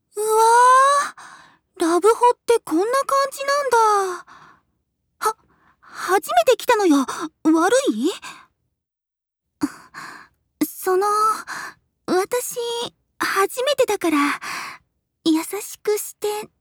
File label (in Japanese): お姉さんと服を脱がしあいっこ